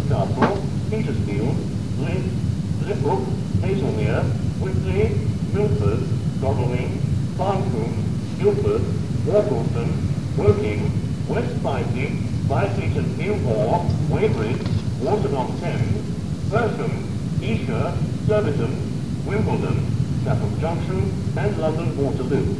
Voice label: pre recorded platform announcement, Portsmouth Harbour; year 1988